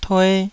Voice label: "tue2", Taiwanese